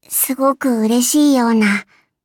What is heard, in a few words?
levelup ja; cookie run